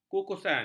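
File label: "kukusan", Malay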